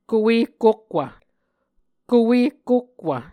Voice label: "kuekokwv", Muscogee; Mvskoke Words with Voicing Consonants; A Dictionary of Creek/Muskogee; SIL International

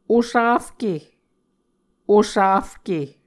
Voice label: "osafke", Muscogee

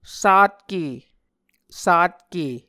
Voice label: "satke", Muscogee

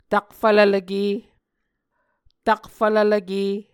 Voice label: "takfvlvlvke", Muscogee

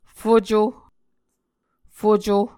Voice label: "fuco", muscogee

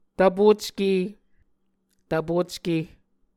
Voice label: "tvpocke", muscogee

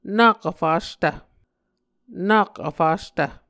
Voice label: "nak vfastv", Muscogee

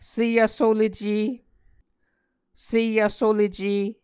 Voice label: "sevsolece", Muscogee

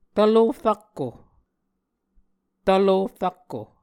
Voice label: "tvlof-rakko", muscogee; Mvskoke Words with Repeated Consonants; Onvkv Ohhvyayices; A Dictionary of Creek/Muskogee; SIL International

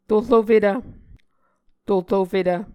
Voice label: "torofetv", Muscogee

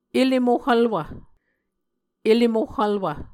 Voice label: "ele mohhvlwv", muscogee; Mvskoke Words with Repeated Consonants; A Dictionary of Creek/Muskogee; SIL International; Onvkv Ohhvyayices

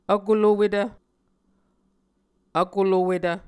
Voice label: "vkolowetv", Muscogee

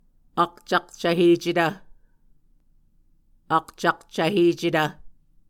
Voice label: "akcakcvhecetv", Muscogee

